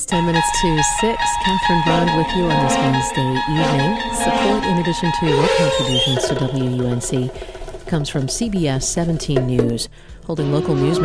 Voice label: voice left music right